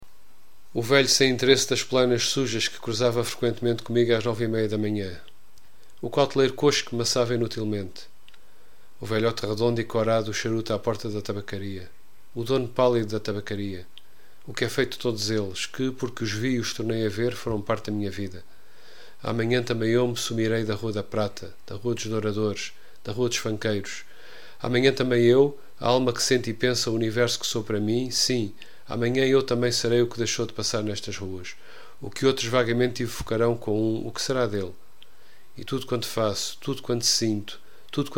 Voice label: saudades voz, portuguese; F Pessoa; Desassossego